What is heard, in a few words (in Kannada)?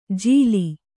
"ಜೀಲಿ", kannada